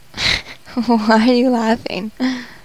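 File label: haha why are you laughing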